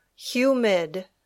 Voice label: "humid", English

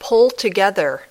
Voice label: "pull together", English

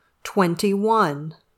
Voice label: "twenty-one", English

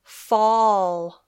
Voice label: "fall", English; (autumn); The Seasons in English

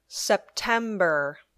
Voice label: "September", English

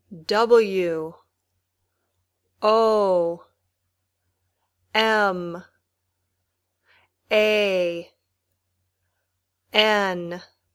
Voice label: Spelling, Basic English

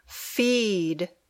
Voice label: "feed", English

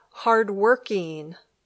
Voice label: "hard-working", English

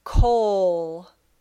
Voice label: "coal", English

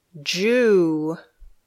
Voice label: "Jew", English

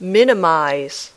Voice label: "minimize", English

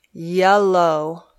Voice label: "yellow", English

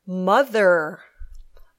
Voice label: "mother", English

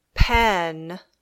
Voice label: "pen", English

